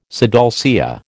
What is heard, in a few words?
"sidalcea", American